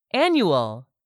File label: "annual", english; 3000 words